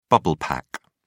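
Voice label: "bubble pack", English, British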